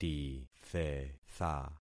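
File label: "tithetha", concatenated syllables; Affixing experiment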